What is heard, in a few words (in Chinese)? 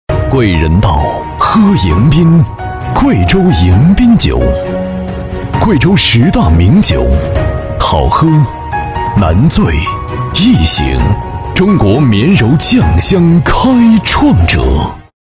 男 大气高端酒宣传; 男212-厚重有力 成熟厚重; 贵州迎宾酒